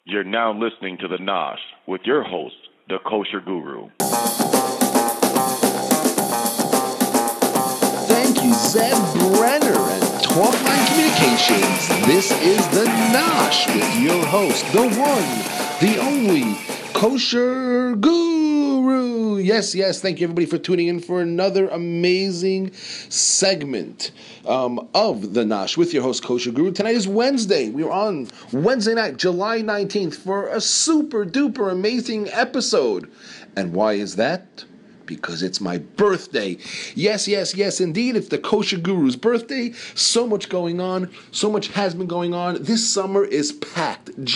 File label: Voice over